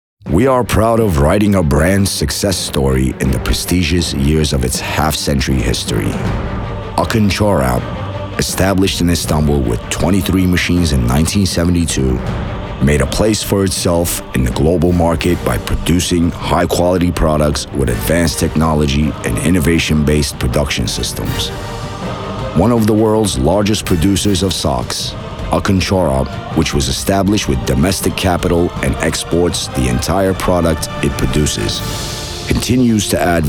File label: corporate textile promotion